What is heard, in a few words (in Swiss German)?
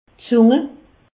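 "zung", Alsace, Haut Rhin; langue; medecine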